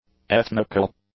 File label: "ethnical", English; adjectives